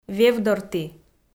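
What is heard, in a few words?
"вевдорті", Komi, female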